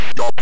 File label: stop, Atari Talks; chiptune; irrlicht